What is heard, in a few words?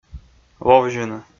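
"ловзьыны", Komi, male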